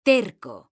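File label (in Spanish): "terco", Spanish, female; mekanta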